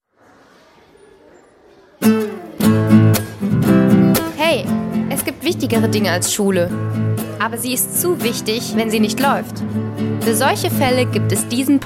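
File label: German, female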